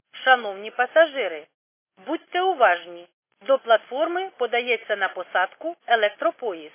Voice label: khs st female, subway station